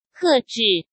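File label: "克制" Mandarin Chinese; hsk; chinese-flashcards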